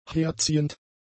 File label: "herziehend", German; adjectives